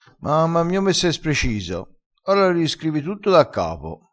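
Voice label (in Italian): "spreciso", dialetto crespinese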